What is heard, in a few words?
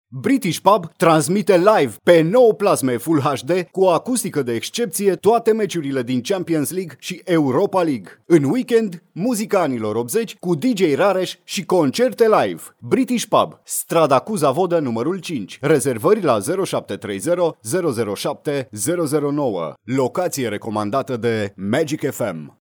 romanian male